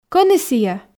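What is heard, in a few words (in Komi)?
Komi, кӧні сійӧ, female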